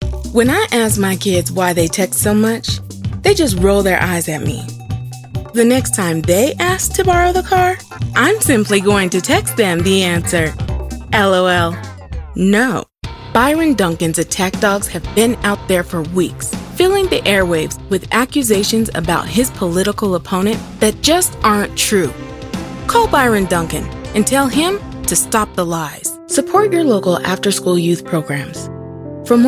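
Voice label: US English commercial; GoLocalise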